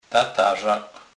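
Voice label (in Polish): "tatarzak", Kurpie dialect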